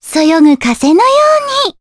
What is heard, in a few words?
Vox Skill jp; Cassandra; kingsraid